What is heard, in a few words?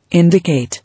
"Indicate", English